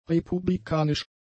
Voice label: "republikanisch", German; adjectives